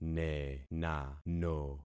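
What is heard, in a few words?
"nenano", concatenated syllables; Affixing experiment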